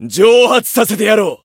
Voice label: encount, jp